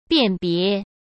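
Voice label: "辨别", Mandarin Chinese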